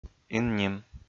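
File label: "инним", Komi, male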